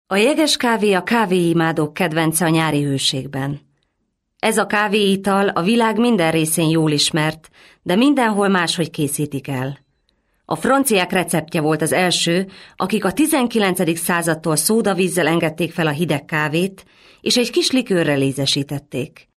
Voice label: female hungarian voice over narrative